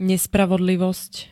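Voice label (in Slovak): "nespravodlivost", Slovak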